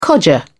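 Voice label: "codger", English, British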